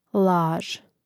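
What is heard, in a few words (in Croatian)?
"laž", Croatian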